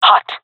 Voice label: jungle fem epic; vo; agents; Source Model Packs; Counter Strike Model Pack; CS2